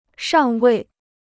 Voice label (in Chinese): "尚未", Chinese